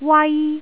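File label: "wai1", Cantonese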